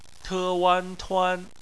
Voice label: "tuan", Chinese; phonetics

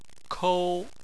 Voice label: "kou1", Chinese

